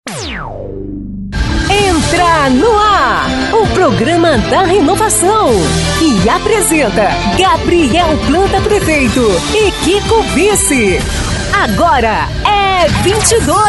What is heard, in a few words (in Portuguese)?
FEMININA